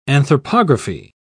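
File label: "anthropography", English, British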